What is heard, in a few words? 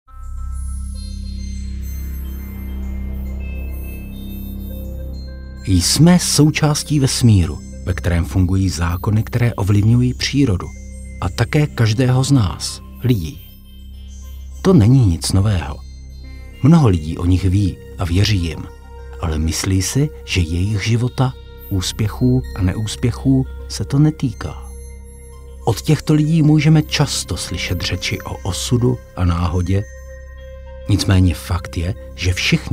male Czech smooth, commercial, recited; 35-55